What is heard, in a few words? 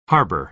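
"harbor", American English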